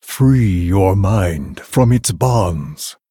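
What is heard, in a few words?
Male Dryad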